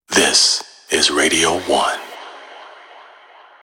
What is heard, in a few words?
Male This Is Radio